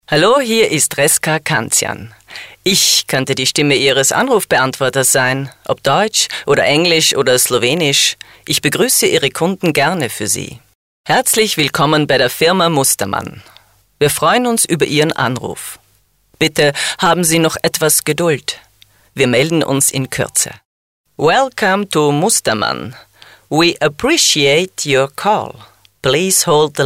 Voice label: Sprecher Nativespeaker, native speaker

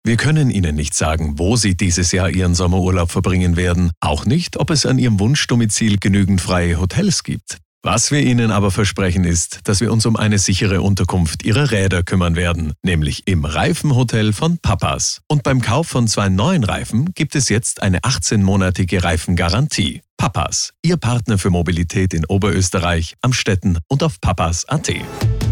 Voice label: Sprecher Showreel

